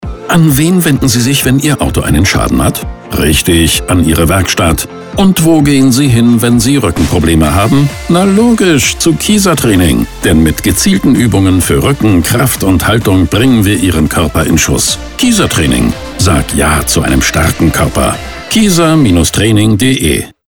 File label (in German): Radiospot Beispiele Fitnessstudios, Motivierend, kraftvoll, zielgerichtet; Kieser Training Image